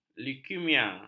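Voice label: "leukemia", Malay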